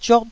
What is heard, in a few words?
"ziot1", Taiwanese